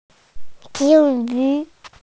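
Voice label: "goldfish", Mandarin, hearing-impaired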